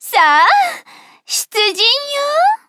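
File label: start, Japanese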